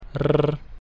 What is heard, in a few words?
ipa r front trilled